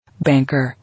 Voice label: "Banker", English